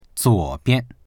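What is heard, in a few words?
"zuo3bian", Mandarin Chinese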